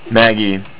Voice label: Mountain, estonian